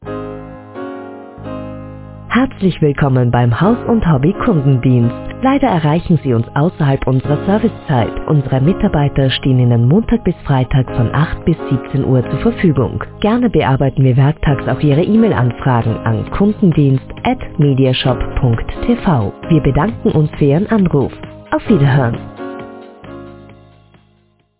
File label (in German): telefonwarteschleife; haus und hobby